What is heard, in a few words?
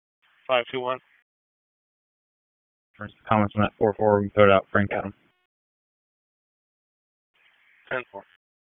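Raleigh Police Southeast District Dispatch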